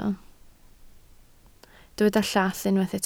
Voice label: "llath", Welsh